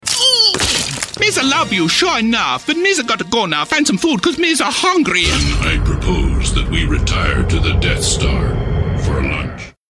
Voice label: Character

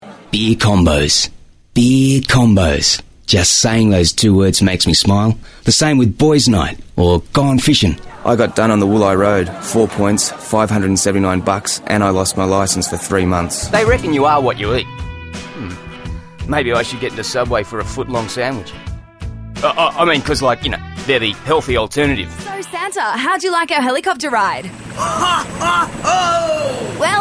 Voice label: voice acting

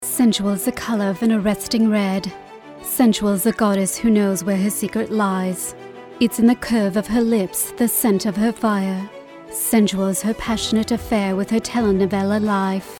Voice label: Sensual

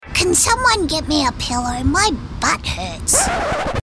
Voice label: Character; EM Voices

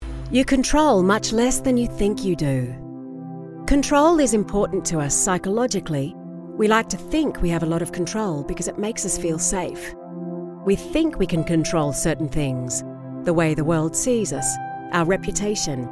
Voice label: Corporate

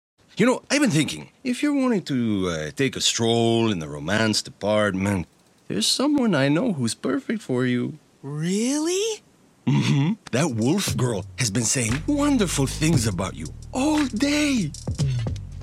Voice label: animation

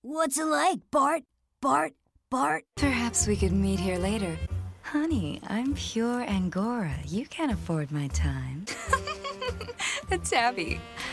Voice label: Animation

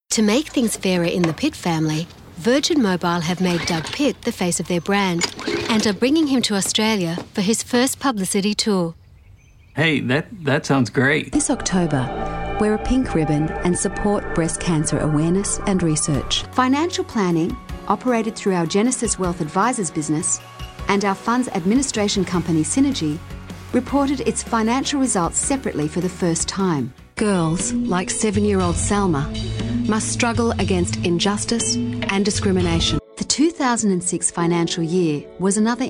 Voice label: corporate; EM Voices

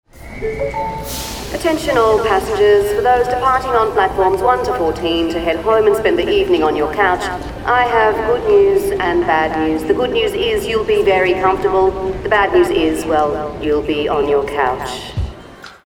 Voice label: TRAIN ANNOUNCEMENT

